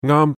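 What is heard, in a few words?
Cantonese "ngaam1"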